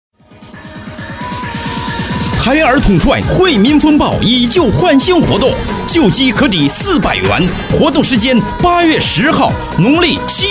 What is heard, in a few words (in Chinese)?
促销海尔统帅